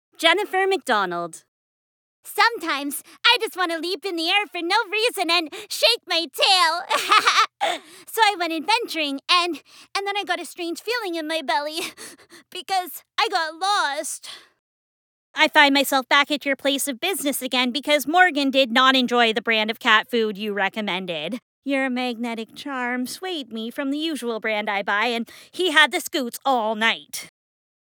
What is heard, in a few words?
Animation